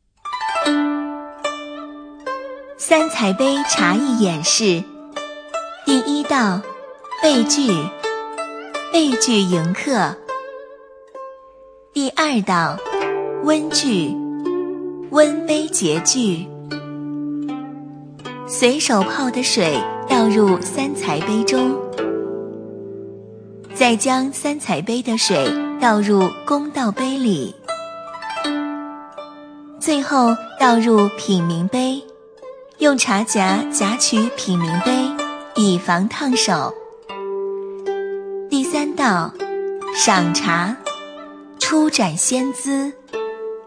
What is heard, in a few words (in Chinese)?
女 解说; 三才杯茶艺演示